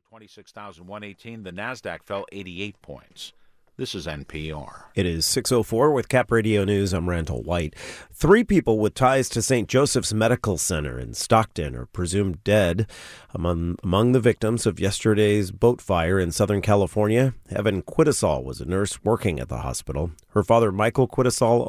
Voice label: newscast